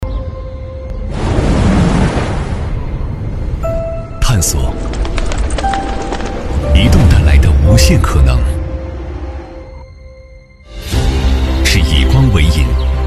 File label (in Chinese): 男 广告; 移动带来的无限可能